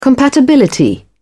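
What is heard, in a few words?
"compatibility", British English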